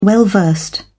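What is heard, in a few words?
"well versed", British English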